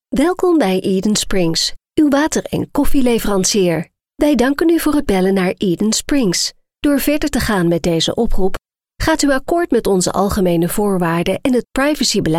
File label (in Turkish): Hollanda Kadin Felemenkce; hollandaca; seslendirme